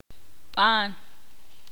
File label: ixil; correcto